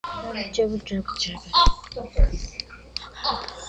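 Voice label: "jab", spoken word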